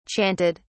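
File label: "chanted", British English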